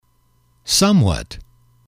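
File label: "somewhat", English, male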